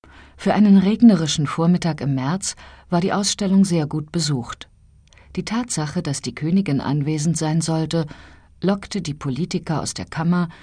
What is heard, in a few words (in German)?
hoerbuch